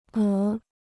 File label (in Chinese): "鹅", Chinese